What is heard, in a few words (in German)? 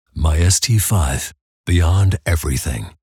Werbung EN UK; flippig frech verspielt